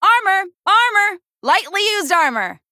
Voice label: Female Human Play